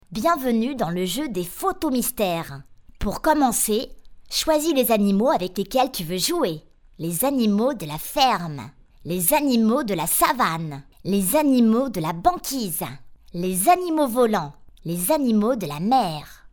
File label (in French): voix petit garçon; Sam-Sam; Bayam